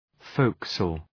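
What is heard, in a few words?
"forecastle", English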